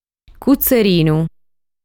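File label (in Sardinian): "Cutzerinu", Sardinian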